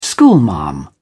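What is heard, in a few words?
"schoolmarm", British English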